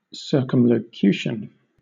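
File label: "circumlocution", English, British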